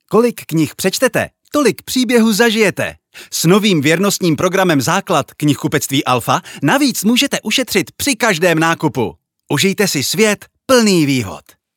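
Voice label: Czech, male, commercial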